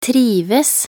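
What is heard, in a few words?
"trives", Norwegian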